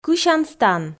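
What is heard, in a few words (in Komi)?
"кысян стан", Komi, female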